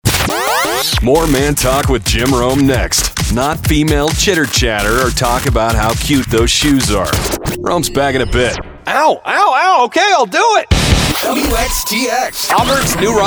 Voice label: Radio Imaging